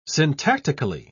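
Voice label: "syntactically", British English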